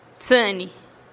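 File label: "tsï'nih", Teotitlán del Valle Zapotec; trece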